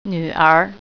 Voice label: "daughter", Mandarin

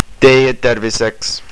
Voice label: "cheers", Estonian

